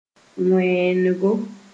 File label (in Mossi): "wẽenego", Moore